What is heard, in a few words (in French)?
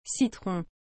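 "citron", French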